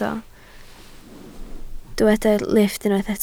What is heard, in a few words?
"lifft", Welsh